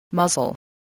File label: "muzzle", voice